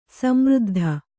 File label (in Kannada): "ಸಮೃದ್ಧಿ", kannada